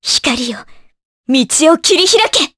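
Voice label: Vox Skill, voices, Japanese; kingsraid; voices heroes jp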